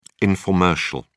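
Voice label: "informercial", British English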